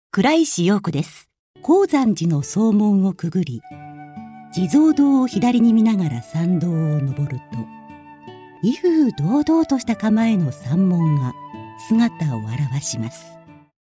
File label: female tv narration